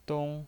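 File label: "dong" Mandarin Chinese, first tone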